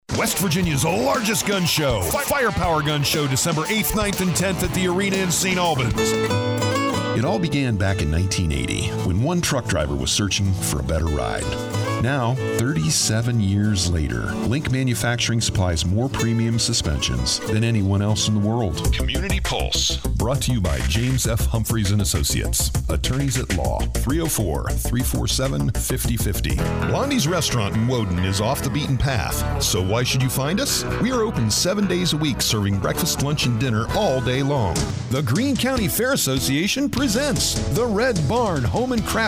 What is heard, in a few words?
Gender Male, Country USA, Speaks English, Accents American; Commercial Demo